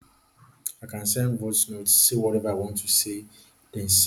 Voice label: voice message